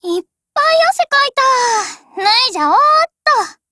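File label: win, voice, Japanese; GF Alarm; C96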